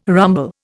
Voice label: "rumble", Indian

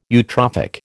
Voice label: "eutrophic", American

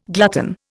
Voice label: "glutton", Indian

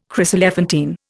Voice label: "chryselephantine", Indian

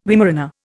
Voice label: "Weimaraner", Indian

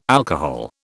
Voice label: "alcohol", British

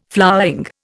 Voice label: "flowering", Indian

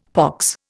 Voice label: "pox", Indian; Dictionary

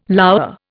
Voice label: "lour", Indian

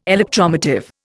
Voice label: "electromotive", Indian

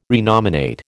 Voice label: "renominate", American

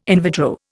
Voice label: "in vitro", Indian

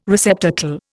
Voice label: "receptacle", Indian

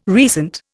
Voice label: "recent", Indian

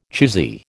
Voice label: "choosy", American

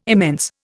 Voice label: "immense", Indian

